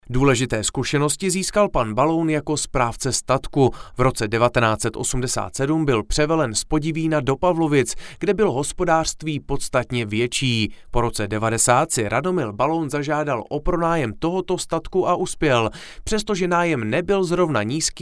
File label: Czech male